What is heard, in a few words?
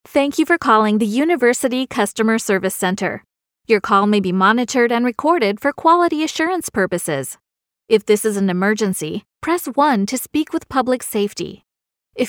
english-us female ivr